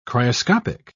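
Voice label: "cryoscopic", British English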